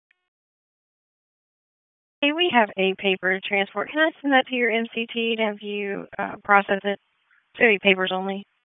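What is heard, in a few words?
Police District Dispatch; Raleigh; Northeast; wake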